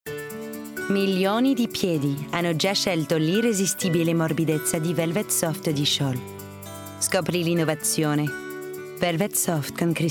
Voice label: Commercials Montage Italian